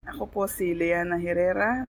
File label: tagalog; POP UP Zagreb